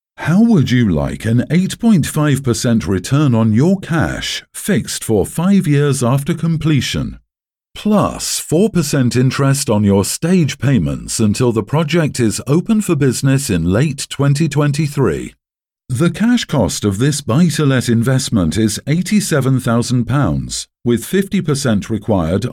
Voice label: English Voice Over Video Promotion